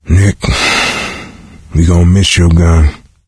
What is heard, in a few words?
survivor mourn, voice; Left 4 Dead 2; coach